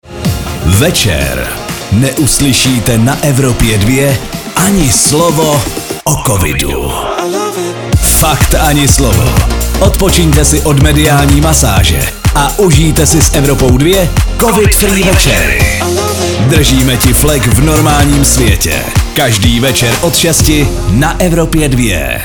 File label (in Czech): promo covidfree vecer; evropa2